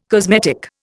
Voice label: "cosmetic", Indian

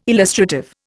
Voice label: "illustrative", Indian

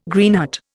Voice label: "Greenheart", Indian

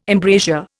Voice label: "embrasure", Indian

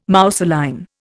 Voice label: "mousseline", Indian

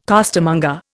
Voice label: "costermonger", Indian

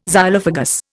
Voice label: "Xylophagous", Indian

